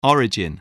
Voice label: "origin", English voice